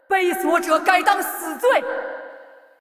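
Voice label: Chinese, assist; Kayle; bloom minigame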